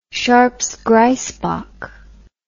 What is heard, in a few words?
"sharpes grysbok", words